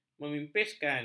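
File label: "memipiskan", Malay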